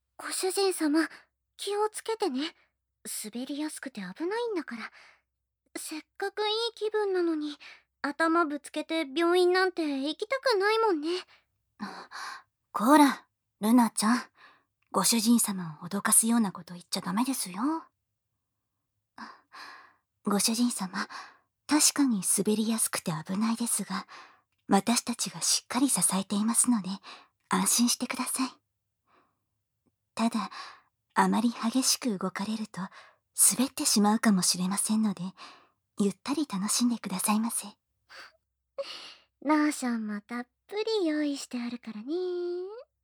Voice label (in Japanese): ローションマッサージ奉仕, ASMR; SEなし; ソープ; KU100